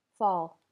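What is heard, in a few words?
"fall", English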